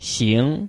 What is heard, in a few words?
"xing2", Chinese